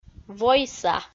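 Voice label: "войса", Komi, female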